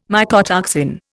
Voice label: "mycotoxin", Indian